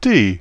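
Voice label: "D", British English; tuxpaint-stamps; symbols; alphabets; uppercase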